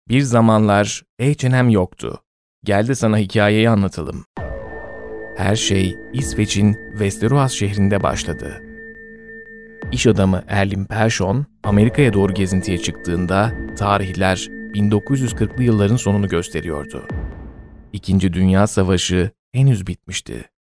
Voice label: Turkish

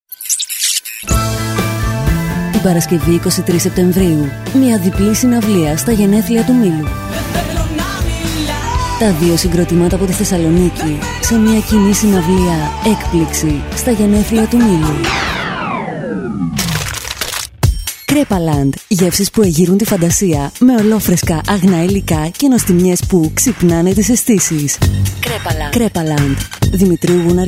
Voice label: Greek

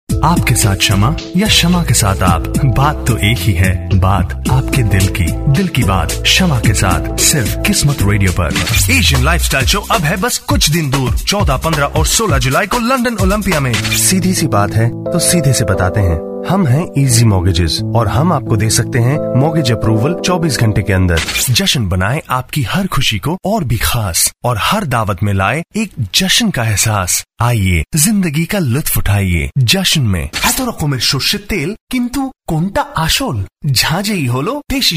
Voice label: Indian

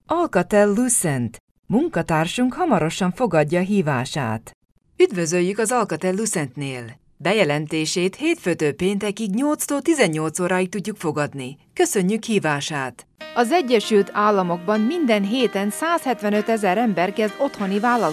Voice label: Hungarian

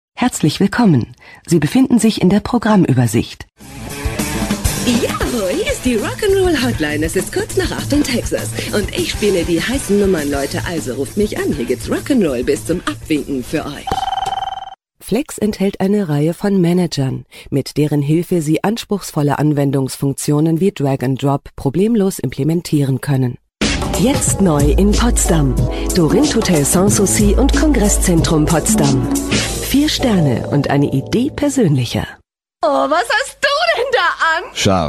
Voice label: German

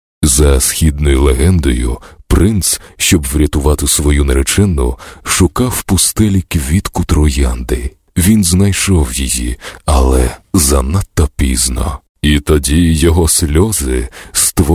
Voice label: Ukrainian

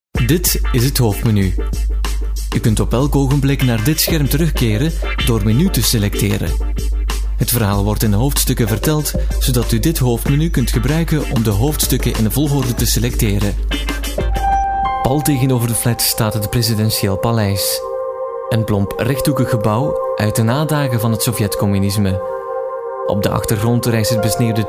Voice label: Flemish

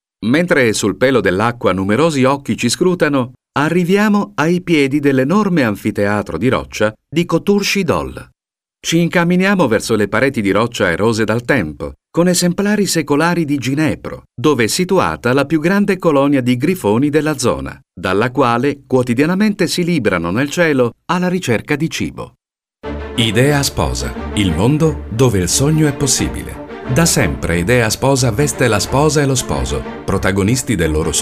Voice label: Italian

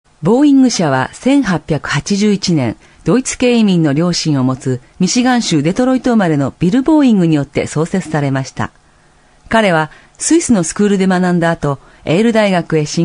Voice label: Japanese

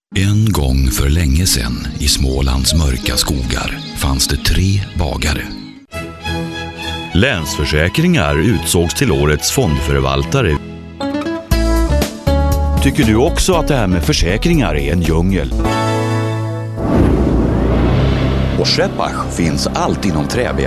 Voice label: Swedish

